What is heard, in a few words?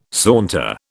"saunter", British